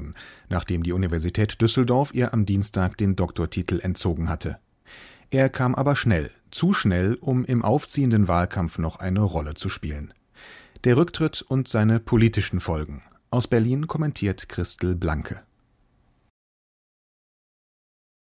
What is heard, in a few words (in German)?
Kommentar; DLF